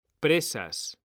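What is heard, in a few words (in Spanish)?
"PRESAS", Spanish; mekanta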